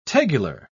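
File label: "tegular", British English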